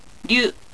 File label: "ryu", Japanese